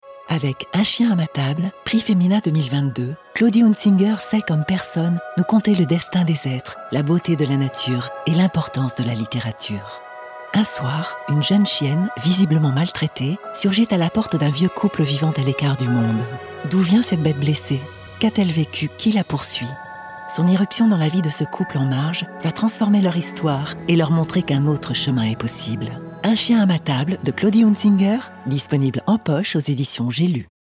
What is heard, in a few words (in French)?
radio; J'AI Lu; un chien a ma table